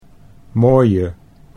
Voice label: "mooie", Dutch